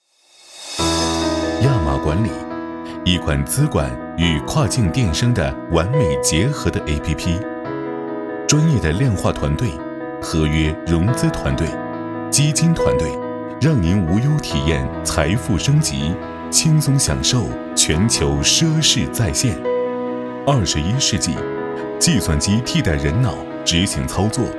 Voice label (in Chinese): 产宣; 亚麻管理